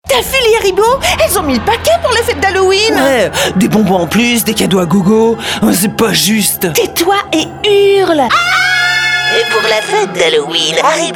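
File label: French character voice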